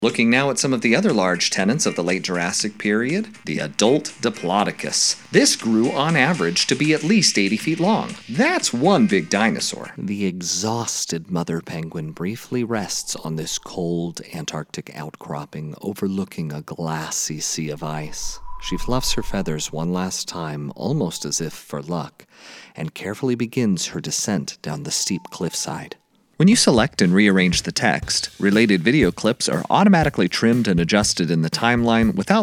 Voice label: vo narration; voiceover